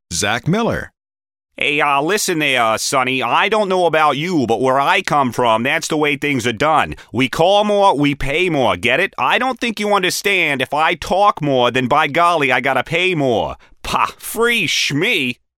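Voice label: New Englander, voice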